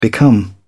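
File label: "become", British English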